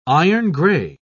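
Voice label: "iron-grey", British English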